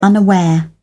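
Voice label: "unaware", British English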